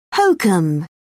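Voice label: "hokum", British English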